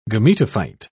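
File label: "gametophyte", English, British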